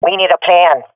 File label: request plan, swat fem; vo; Counter Strike Model Pack; CS:GO